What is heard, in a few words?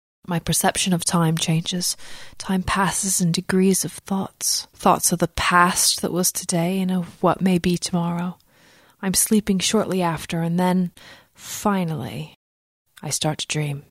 Natural Accent Book Naration